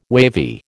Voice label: "wavy", American